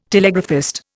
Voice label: "telegraphist", Indian

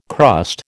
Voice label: "crust", American

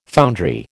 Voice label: "foundry", American

